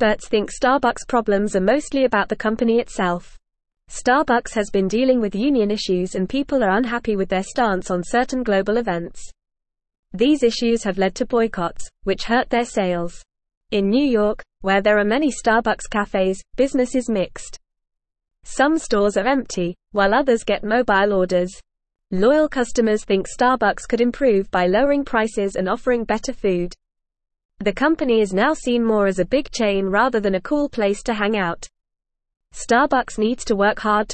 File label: English FAST Reading; Starbucks Faces Sales Drop and Customer Anger